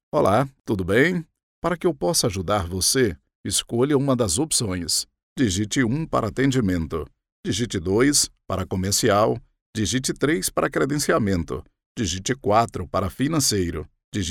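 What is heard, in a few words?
portuguese brazilian interactive voice response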